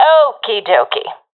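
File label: affirmation, professional female; vo; agents; Source Model Packs; Counter Strike Model Pack; CS2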